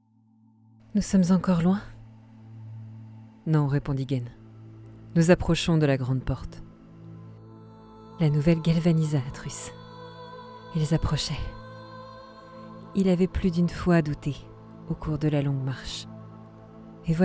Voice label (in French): voix livre audio; Myst